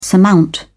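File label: "surmount", British English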